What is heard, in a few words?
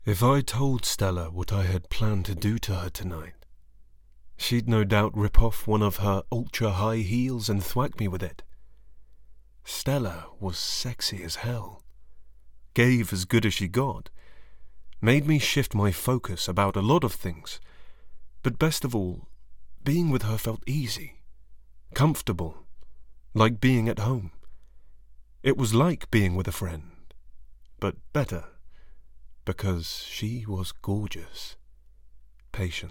Erotic Sample